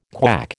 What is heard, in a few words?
"KWAC", American